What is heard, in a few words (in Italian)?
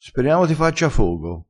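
"fogo", vocabolario dialettale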